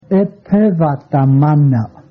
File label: good afternoon, Cheyenne